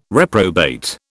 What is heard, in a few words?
"reprobate", British